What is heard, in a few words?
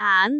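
"aan2", Cantonese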